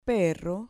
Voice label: "perro" Spanish